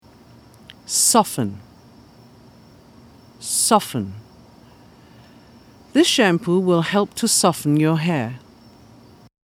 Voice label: soften, pronunciation